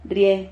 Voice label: "rrihe", silabas

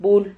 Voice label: "vul", Silabas

